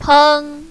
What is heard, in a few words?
"peng1", mandarin, female